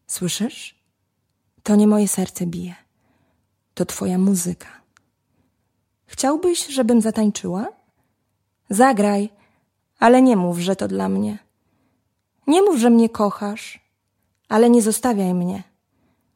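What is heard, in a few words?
Polish General; GoLocalise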